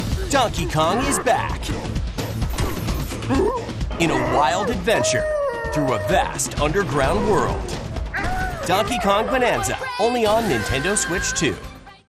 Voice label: bright retail; donkey kong